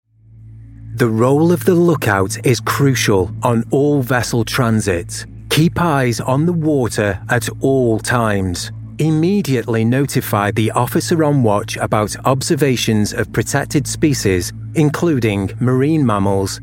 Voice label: instructional